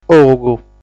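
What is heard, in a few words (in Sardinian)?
"Ogu", Sardinian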